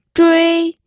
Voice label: "zhui1", pinyin